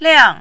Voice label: "liang4", mandarin

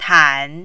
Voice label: "tan3", Mandarin

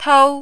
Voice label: "tou1", Mandarin

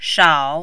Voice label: "shao3", Mandarin

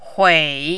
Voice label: "hui3", Mandarin

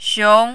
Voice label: "xiong2", mandarin

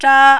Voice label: "zha1", mandarin

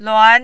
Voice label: "luan2", mandarin

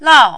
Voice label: "lao4", mandarin

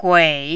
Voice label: "gui3", Mandarin

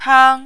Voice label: "pang1", mandarin

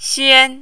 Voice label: "xian1", Mandarin

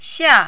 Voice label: "xia4", Mandarin

